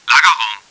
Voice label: storage room, german; csco; csgo; bot

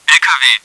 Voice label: truck, german; csco; csgo; bot

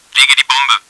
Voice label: planting the bomb, german; csco; csgo; bot

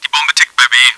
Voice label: the bombs ticking at b, german; csco; csgo; bot

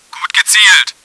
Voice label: good shot, german; csco; csgo; bot

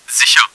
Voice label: clear, german; csco; csgo; bot

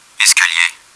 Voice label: stairs, french; csco; csgo; bot

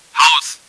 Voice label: house, german; csco; csgo; bot

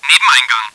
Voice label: side door, german, bot; csco; csgo

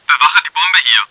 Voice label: guarding the dropped bomb, german; csco; csgo; bot

